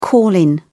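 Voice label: "call in", British English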